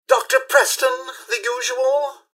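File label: hello, robot; rivetcity; mrhandy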